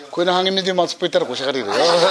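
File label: "matupoe", Minamiyamagata dialect Japanese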